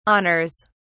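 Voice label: "honours", British English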